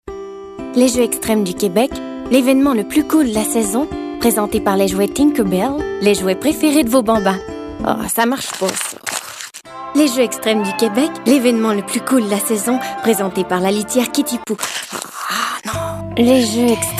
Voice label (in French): voix femmes